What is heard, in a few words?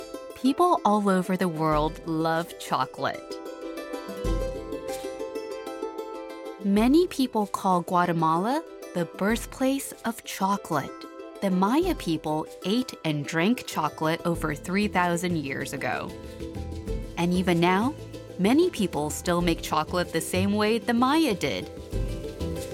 Educational corporate Native US